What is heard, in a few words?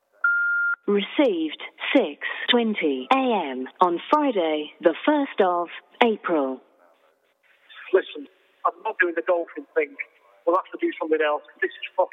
voicemail; An Idiot Abroad; Karl Pilkington; Ricky Gervais; season 2